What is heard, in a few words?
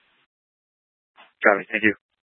Scottsdale Police, Simulcast